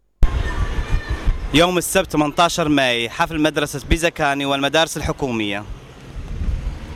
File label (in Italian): appello in arabo